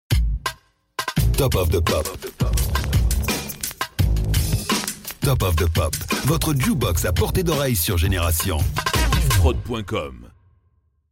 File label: Jingle; TOTP